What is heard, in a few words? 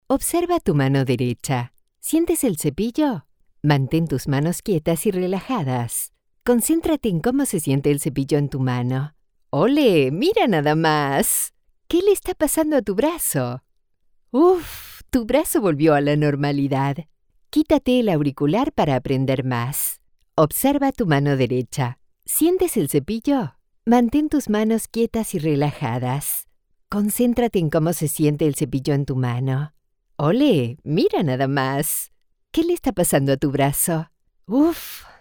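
Interactive Museum Exhibits, voice over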